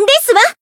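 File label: Voice attack, Japanese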